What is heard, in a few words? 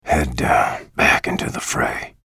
chatter negative; bj blazkowicz